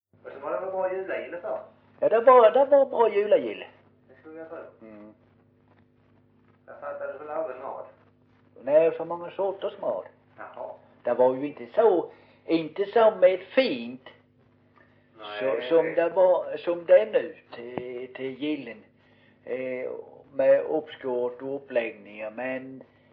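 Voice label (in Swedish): Osby Skåne, svenska sagor dialekter; Folkminnen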